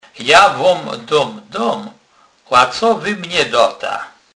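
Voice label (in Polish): "dac", Kurpie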